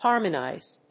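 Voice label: "harmonise", English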